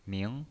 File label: "ming2", pinyin